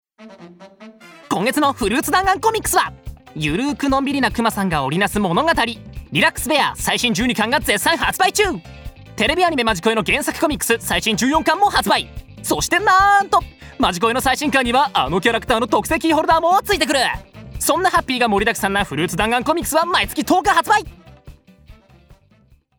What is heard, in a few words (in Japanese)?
ナレーション